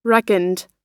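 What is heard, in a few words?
"reckoned", US English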